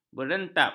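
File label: "berentap", Malay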